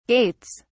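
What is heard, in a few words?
"gaits", British English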